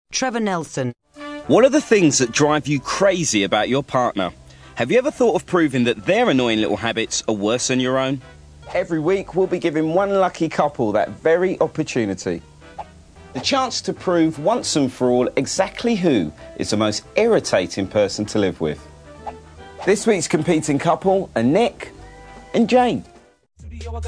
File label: Documentary